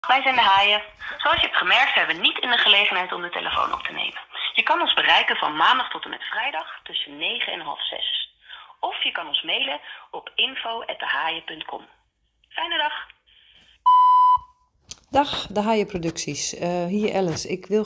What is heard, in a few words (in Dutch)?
Ingesproken bericht van oud-agente op de telefoonbeantwoorder